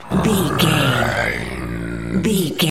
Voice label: zombie voice brains